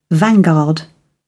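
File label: "vanguard", British English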